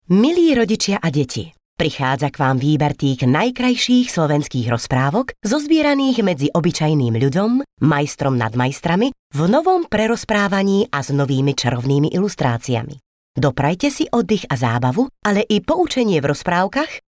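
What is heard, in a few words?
Female Slovak documentary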